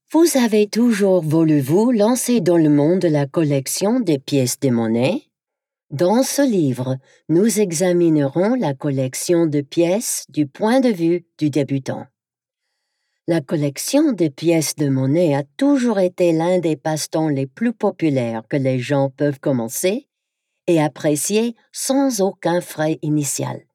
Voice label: Non Fiction French; Coin Collecting